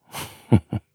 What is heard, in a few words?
hurt